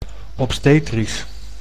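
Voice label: "obstetrisch", Dutch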